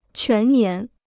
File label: "全年", Chinese